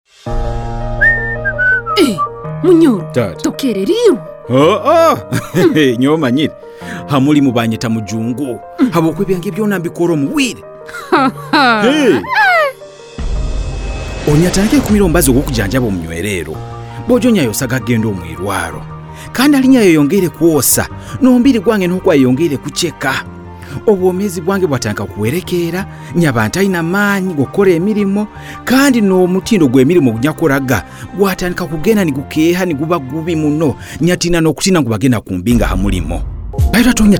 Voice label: Time Up HIV Spot ART Adherence Challenges Runyoro Rutooro; radio spot